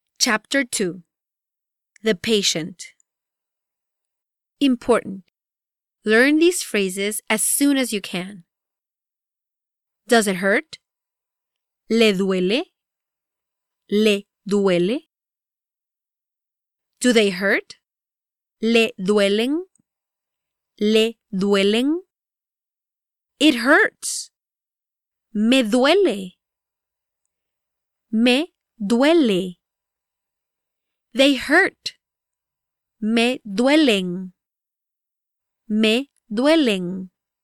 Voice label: Spanish Medical